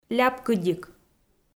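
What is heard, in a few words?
"ляпкыдик", Komi, female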